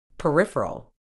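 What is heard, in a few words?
"peripheral", medical English, British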